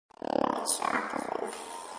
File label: vibrato voxer